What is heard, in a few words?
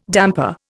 "damper", Indian